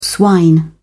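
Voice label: "swine", British English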